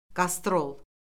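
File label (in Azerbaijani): "qastrol", Azerbaijani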